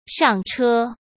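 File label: "上车", Mandarin Chinese; hsk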